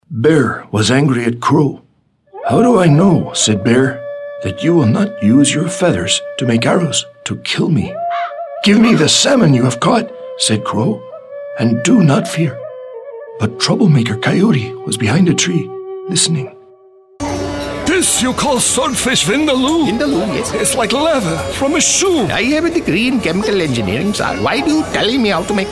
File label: men other dialects; Warm baritone, strong and gritty. Characters, dialects.